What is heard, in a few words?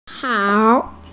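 "hao3", Mandarin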